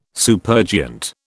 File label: "supergiant", British